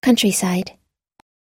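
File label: "countryside", American English; countryside /kʌ́ntrisaid; (いなかの)一地方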